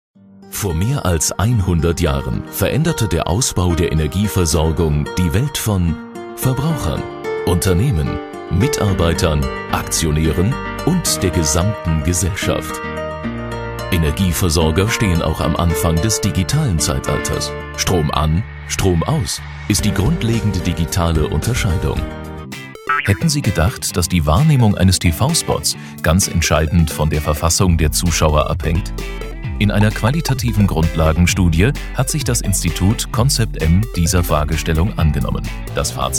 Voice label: Sympathisch

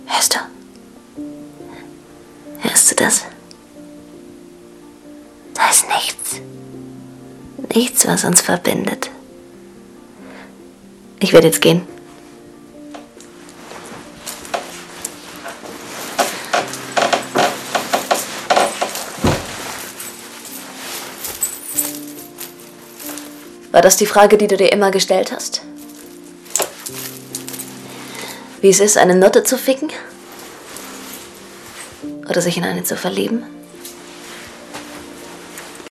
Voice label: Lebendig

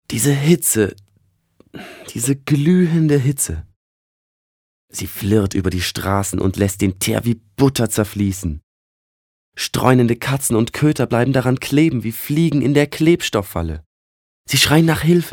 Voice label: Schauspiel Dynamisch

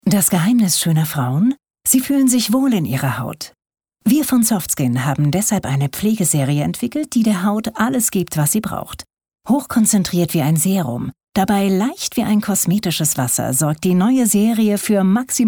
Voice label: Soft Skin Freundlich

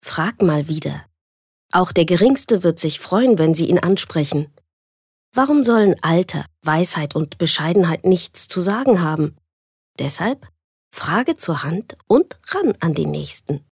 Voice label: Werbung Sanft

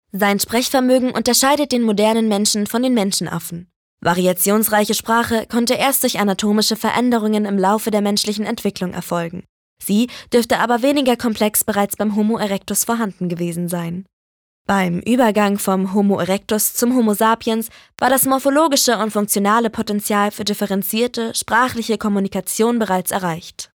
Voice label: Sprache Neutral

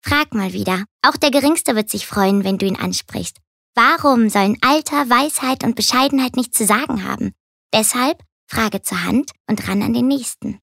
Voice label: Charge Comic

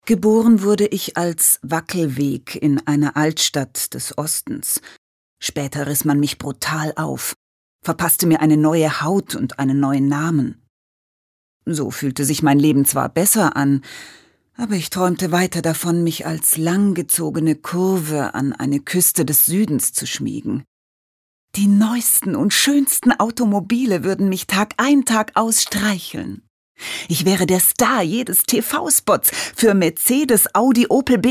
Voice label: Schauspiel Neutral

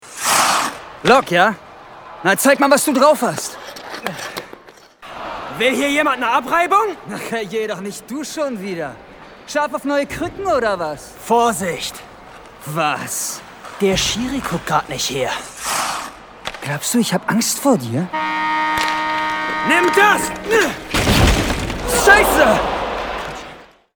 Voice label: Aufgeregt; Fremdtake

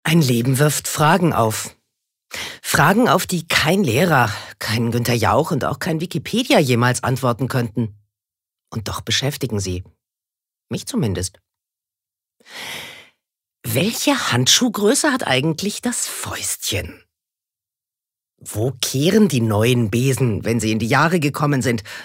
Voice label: Schauspiel Dynamisch

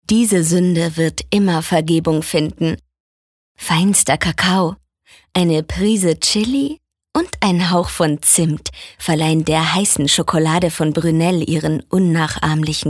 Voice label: Sanft

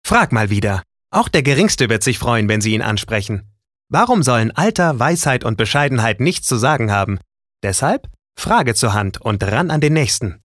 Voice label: Werbung Variabel

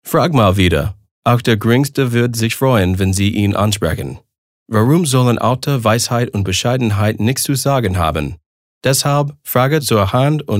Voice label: Akzent Amerikanisch

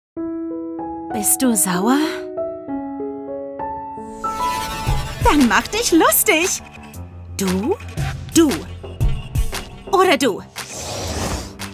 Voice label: Aufgeregt

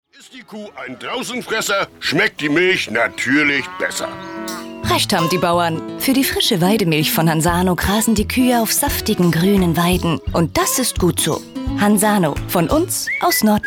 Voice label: Lebendig

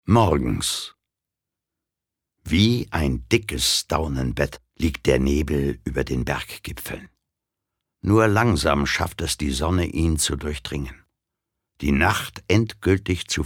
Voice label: Schauspiel Variabel

